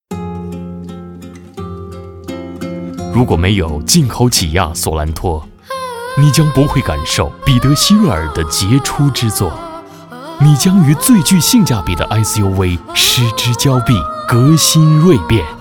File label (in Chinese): 男 广告 低调品质, 国语男声配音; 起亚